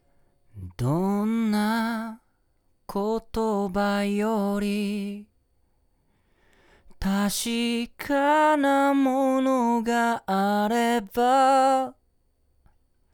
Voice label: AudioTechnica AT4040